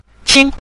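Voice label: "qing", Mandarin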